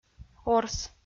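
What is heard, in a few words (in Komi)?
"орс", Komi, female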